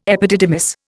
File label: "epididymis", Indian